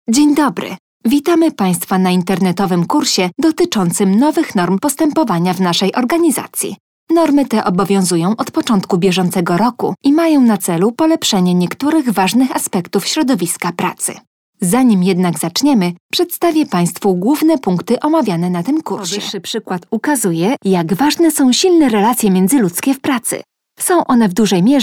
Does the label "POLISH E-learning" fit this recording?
Yes